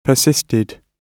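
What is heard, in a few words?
"persisted", British English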